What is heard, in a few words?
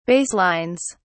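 "baselines", British English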